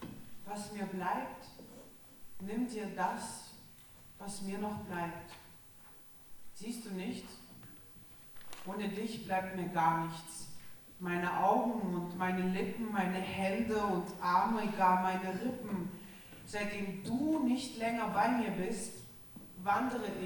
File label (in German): Ansage